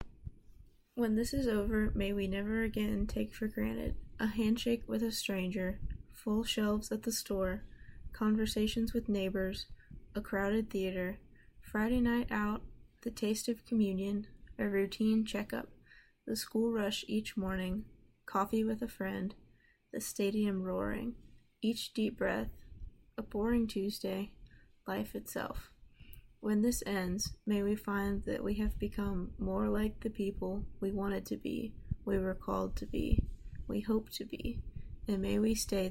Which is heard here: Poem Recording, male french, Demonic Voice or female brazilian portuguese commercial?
Poem Recording